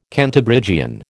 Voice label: "Cantabrigian", American

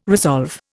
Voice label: "resolve", Indian

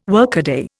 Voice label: "workaday", Indian

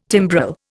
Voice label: "timbrel", Indian

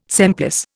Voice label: "semplice", Indian

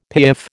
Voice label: "piaffe", American

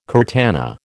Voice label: "curtana", American

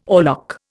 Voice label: "oarlock", Indian

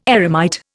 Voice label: "eremite", Indian

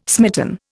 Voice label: "smitten", Indian

